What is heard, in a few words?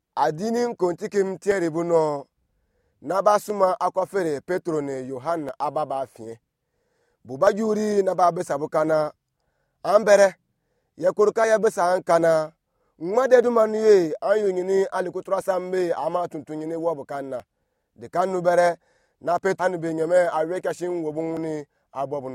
Anufo; Jugement de Pierre et Jean; Religious Leaders Threaten Peter and John